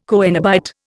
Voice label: "coenobite", Indian